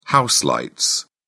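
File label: "house lights", British English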